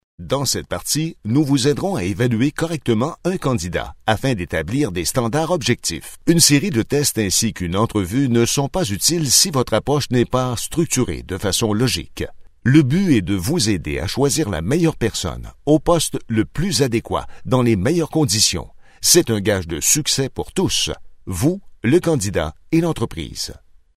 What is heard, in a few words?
french canadian e-learning